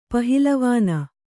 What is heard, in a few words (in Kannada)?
"ಪಹಿಲವಾನ", Kannada